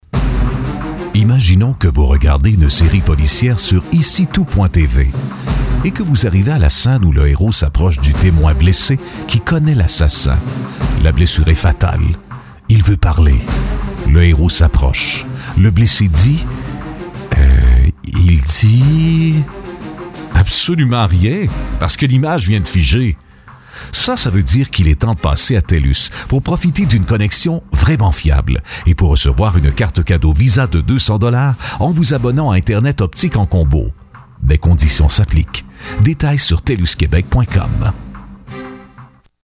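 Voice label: Québec; Telus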